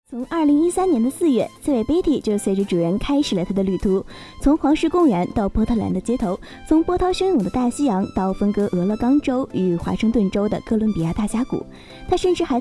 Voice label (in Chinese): 直播节目节选